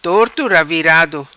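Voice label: "Torto Ravirado", patua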